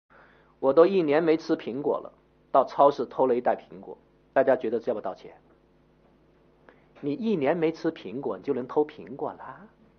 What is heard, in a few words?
talk male; law; vmodel; talking-photo-turbo